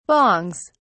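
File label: "bongs", British English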